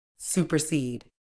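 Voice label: "supersede", British English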